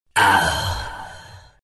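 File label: pain, chinese; dota2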